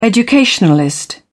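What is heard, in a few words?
"educationalist", British English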